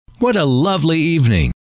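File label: what A Lovely Evening, male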